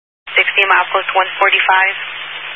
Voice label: Highway Patrol, Phoenix Metro West Area, scanner